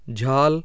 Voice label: hindi, vowels